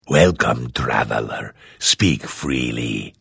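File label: Hello, Vo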